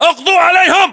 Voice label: order attack infantry, battlechatter, english